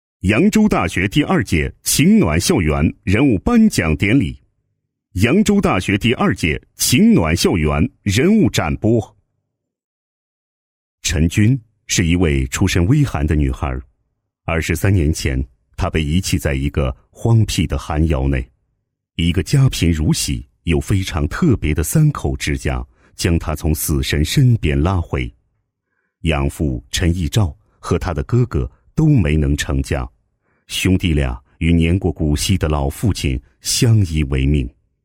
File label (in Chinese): 男 颁奖 人物颁奖; 扬州大学电视台人物颁奖